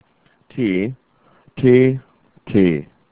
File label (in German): "t", German alphabet